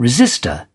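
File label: "resistor", British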